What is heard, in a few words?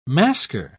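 "masker", British English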